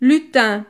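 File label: "lutin", French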